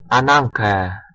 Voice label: "anagke" Ancient Greek